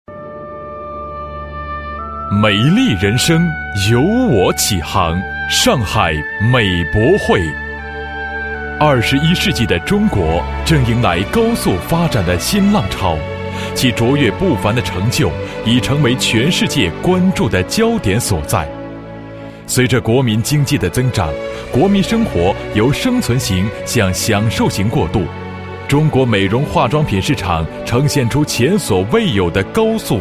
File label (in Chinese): 专题优美; 上海美博汇